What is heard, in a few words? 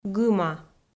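"гыма", Komi, female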